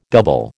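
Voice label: "double", American English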